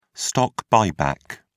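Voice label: "stock buyback", English, British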